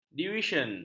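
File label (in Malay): "divisyen", Malay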